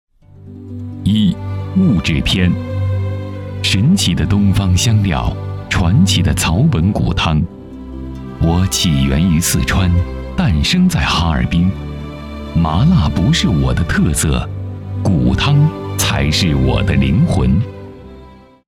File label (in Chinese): 男 专题 麻辣烫